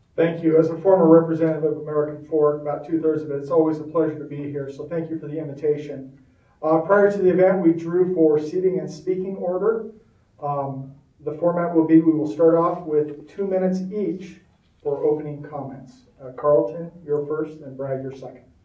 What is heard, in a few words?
moderator; primary; mayor